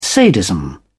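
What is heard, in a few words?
"sadism", English, British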